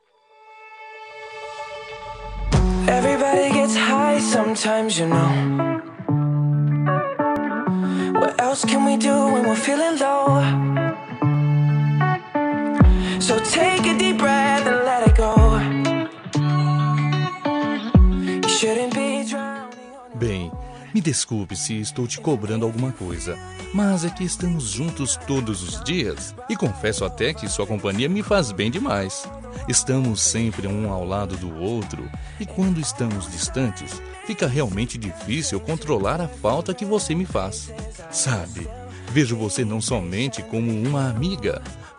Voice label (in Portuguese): PAQUERA M, Telemensagem de Paquera – Voz Masculina